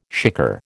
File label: "shikar", American